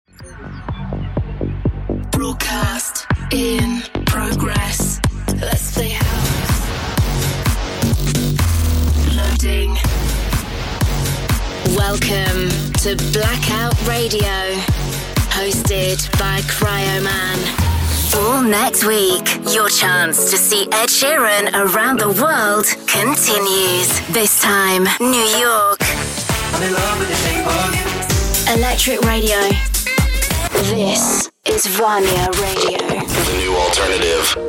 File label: imaging, Youthful Sparkly Bright Voice